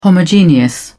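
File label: "homogeneous", British English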